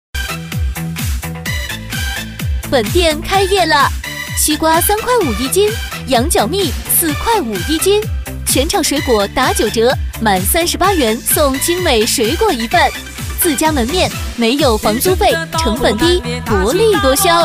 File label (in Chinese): 激情甜美女 水果店开业打折; 激情力度